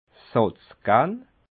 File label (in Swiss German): "satzgenn", alsace